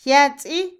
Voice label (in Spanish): claro, Otomí, mujer; INALI